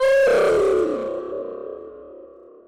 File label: oof slowed with reverb; roblox